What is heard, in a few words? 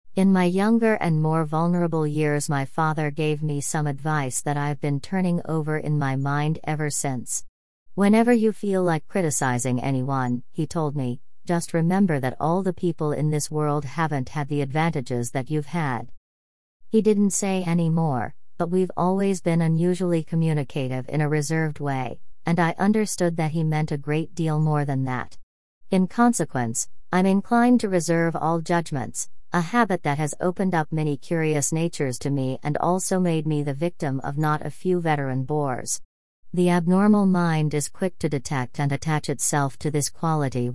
female